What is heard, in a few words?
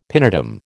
"pinetum", American